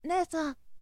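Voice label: "nesan", boy; shonen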